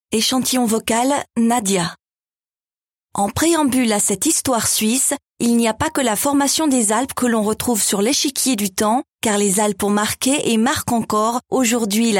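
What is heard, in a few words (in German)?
OFF FR CH, französisch schweiz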